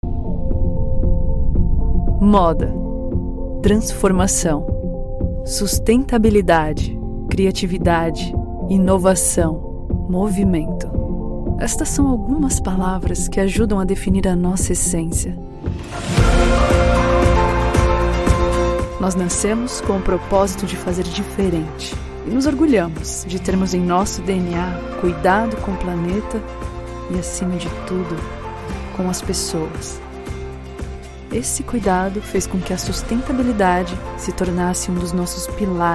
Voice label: portuguese brazilian corporate videos, brazilian portuguese voice actors